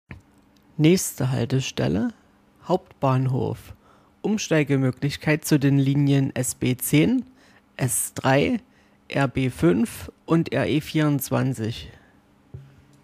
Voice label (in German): Hauptbahnhof, ansagen